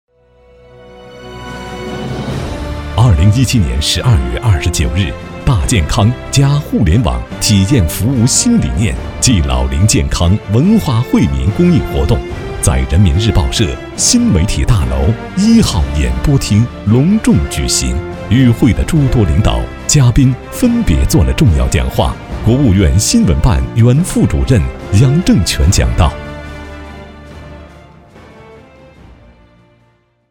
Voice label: 男 专题 汇报; 文化惠民活动

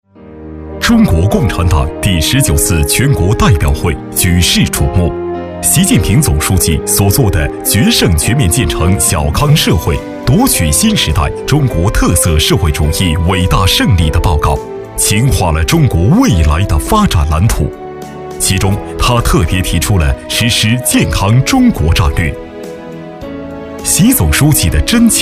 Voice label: 男 专题; 食安龙口

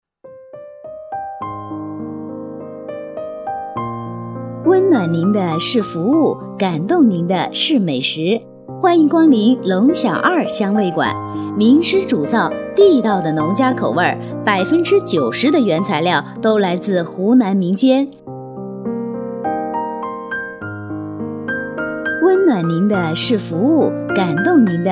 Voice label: 女-彩铃

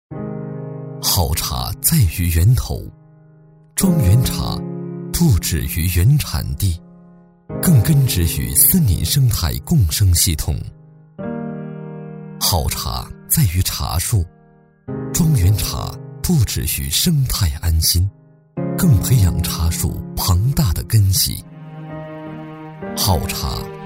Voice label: 男97-广告--茶沧桑感觉

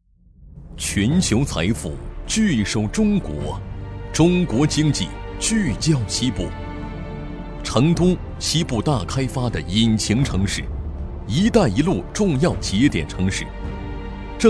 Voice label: 男 专题 宣传片; 成都银行宣传片

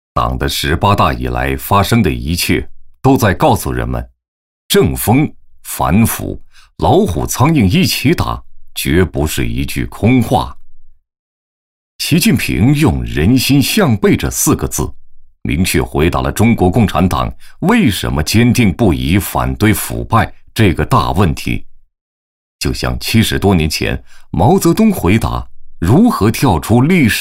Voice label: 男 专题 反腐专题

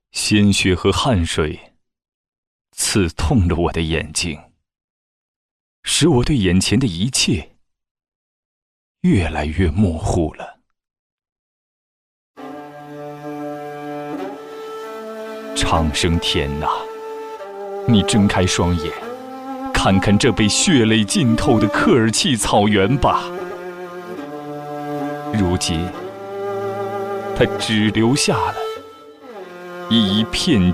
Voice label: 男, 朗诵; 嘎达梅林

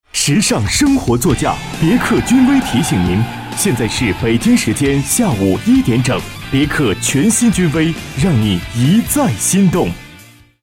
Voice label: 男, 广告, 汽车报时; 九江别克

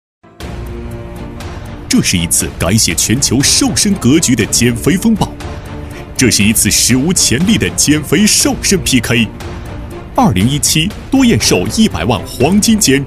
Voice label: 男 广告 代理; 瘦; 多燕瘦

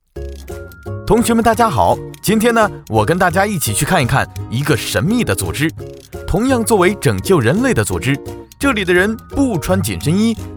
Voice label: 男 飞碟说

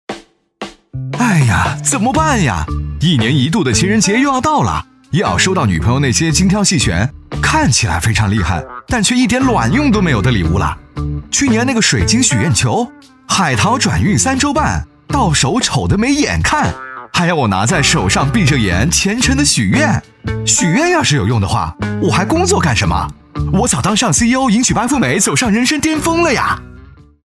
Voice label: 男 情人节礼物（轻快，调侃）; 飞碟说

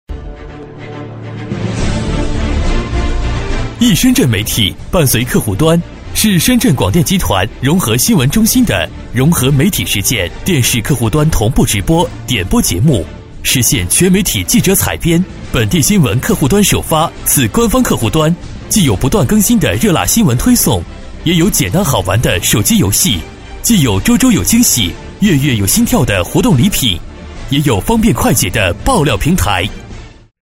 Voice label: 男 专题 APP介绍（激情鼓舞）

